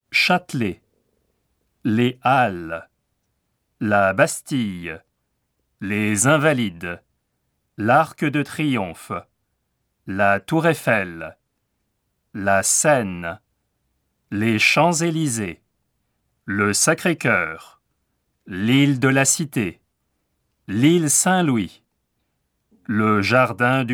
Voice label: PRONONCIATION JUILLET